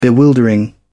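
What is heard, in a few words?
"bewildering", British English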